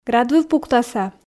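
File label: "град выв пуктаса", Komi, female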